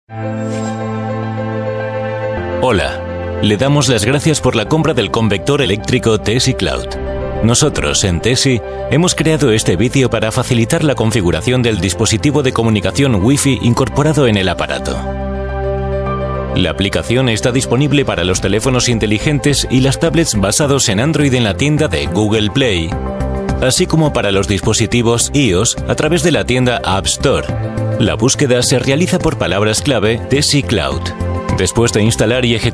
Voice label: spanish voice over male narrator